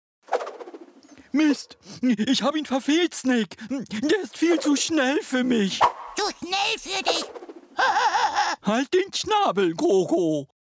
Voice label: Hörspiel; LOFT VOICES

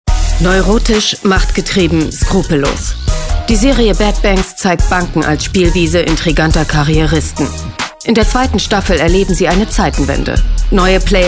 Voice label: Moderne Dokumentation

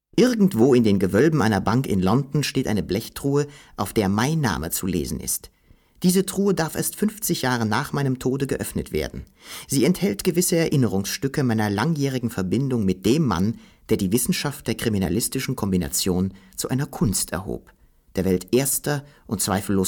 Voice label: erzaehlung

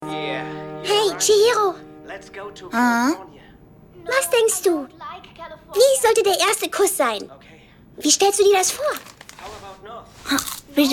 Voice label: synchron anime; sankarea

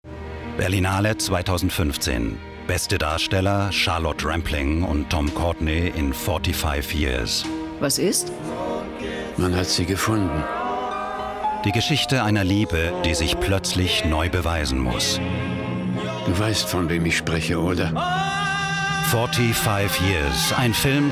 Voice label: Werbung Kino; LOFT VOICES

